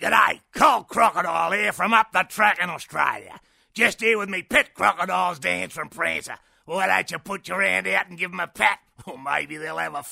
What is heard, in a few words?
Voice Demo